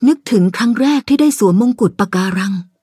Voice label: level up, th; cookie run

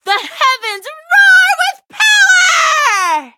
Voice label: level up, en

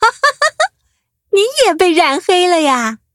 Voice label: upgrade, zh-hant; cookie run

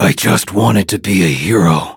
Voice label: menu idle eng; Dark Choco

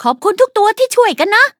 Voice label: level up, th; cookie run

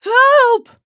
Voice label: hit, en; cookie run

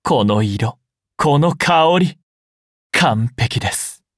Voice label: levelup ja; cookie run

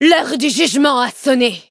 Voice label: battle, fr; Cookie Run

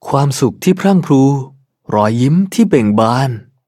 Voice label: level up, th; Cookie Run

